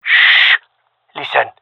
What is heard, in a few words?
request quiet, gendermerie male